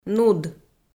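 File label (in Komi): "нуд", Komi, female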